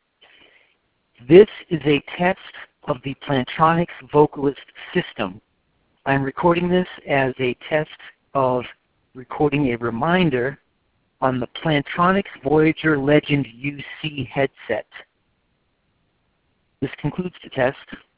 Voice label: plantronics vocalyst reminder; qtooth demo